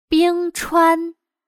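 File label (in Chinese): "冰川" Mandarin Chinese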